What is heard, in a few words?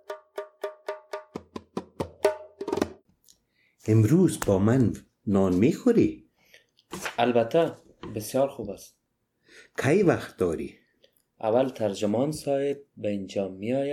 Dialog, Dari Persisch